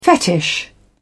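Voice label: "fetish", English, British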